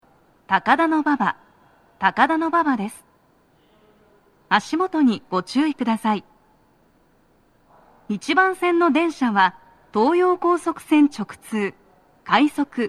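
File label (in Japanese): 到着放送, 女声, 足元注意喚起放送が付帯されており, 快速 東葉勝田台行き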